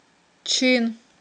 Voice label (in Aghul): "чин", Aghul